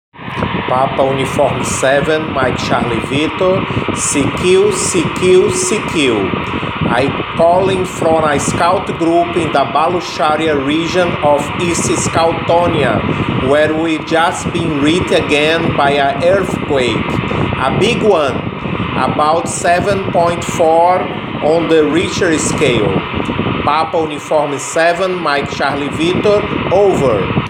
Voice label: Voice Message, SOS, Simulated Emergency; Scoutonia; JOTA-JOTI 2022; Communication during emergencies